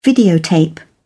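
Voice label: "videotape", British English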